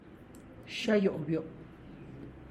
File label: How are you doing, Tlacochahuaya Zapotec; (Spanish) Cómo está usted?; xa yu'biu?